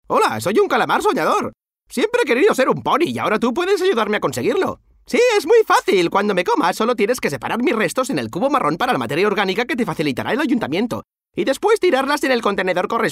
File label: male spanish cartoon comercial; ideasonora